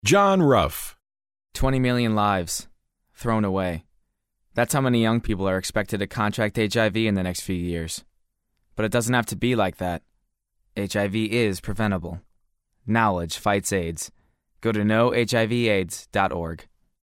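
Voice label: serious, voice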